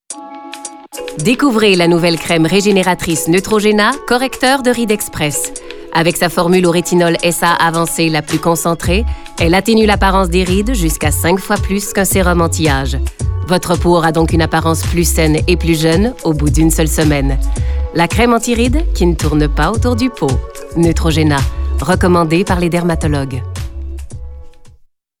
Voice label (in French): Neutrogena Pub fictive